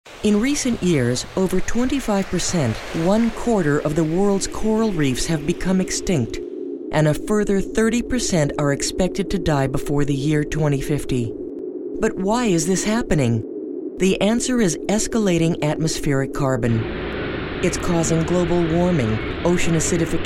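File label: American narration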